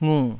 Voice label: "ngu3", taiwanese syllables

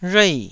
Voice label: "rhuei4", taiwanese

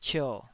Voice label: "cio3", Taiwanese

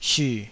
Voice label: "syu3", taiwanese Syllables

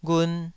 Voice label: "ghun2", Taiwanese syllable

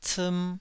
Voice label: "ciim2", Taiwanese

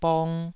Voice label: "bong2", taiwanese syllable

